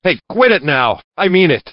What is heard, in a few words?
scared; sven; construction